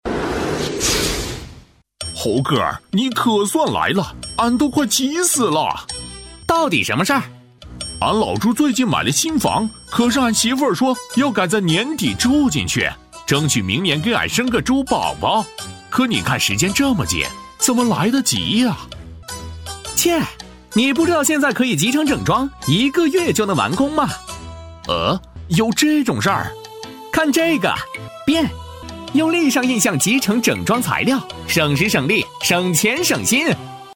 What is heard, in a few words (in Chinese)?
男 角色类; 八戒猴哥